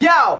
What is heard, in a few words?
"yo", Voice